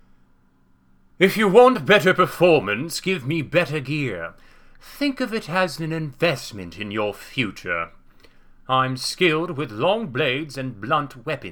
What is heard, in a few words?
imperial warrior for hire, imperial voice auditions